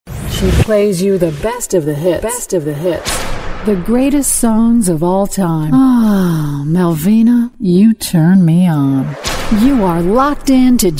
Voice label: RADIO IMAGING female VO